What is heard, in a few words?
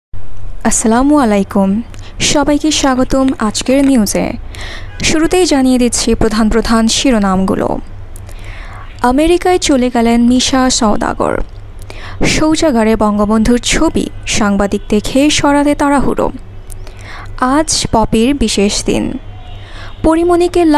news presentation